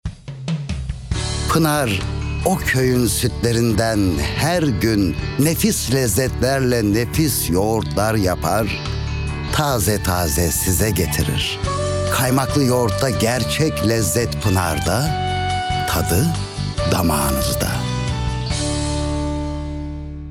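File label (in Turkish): sicak samimi, seslendirme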